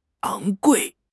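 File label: "昂贵", Mandarin Chinese; HSK; HSK6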